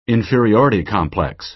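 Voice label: "inferiority complex", American English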